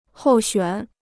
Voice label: "候选", Chinese

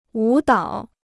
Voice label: "舞蹈", Chinese

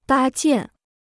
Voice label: "搭建", Chinese

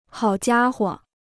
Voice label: "好家伙", Chinese

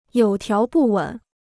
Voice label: "有条不紊", Chinese

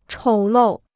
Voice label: "丑陋", Chinese

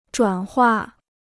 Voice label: "转化", Chinese

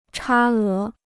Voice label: "差额", Chinese

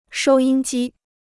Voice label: "收音机", Chinese

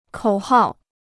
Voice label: "口号", Chinese

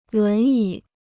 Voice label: "轮椅", Chinese

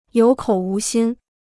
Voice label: "有口无心", Chinese

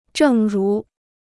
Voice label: "正如", Chinese

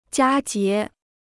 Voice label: "佳节", Chinese

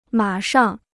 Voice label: "马上", Chinese

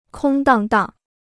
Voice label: "空荡荡", Chinese

